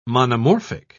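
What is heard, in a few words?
"monomorphic", British English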